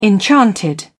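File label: "enchanted", English, British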